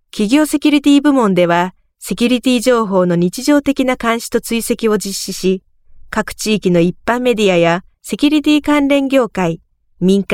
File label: Corporate Japanese, Female